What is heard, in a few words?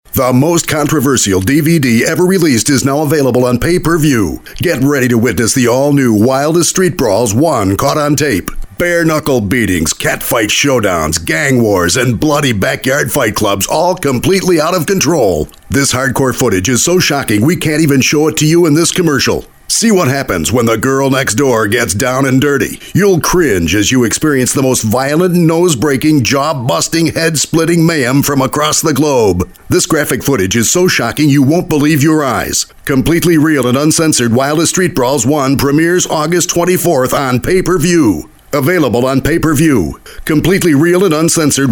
GENERIC AGGRESSIVE DELIVERY